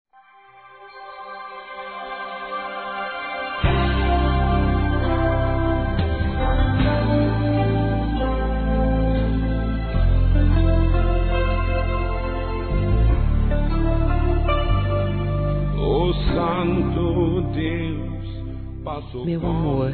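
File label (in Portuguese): Namorados pra Evangelico, female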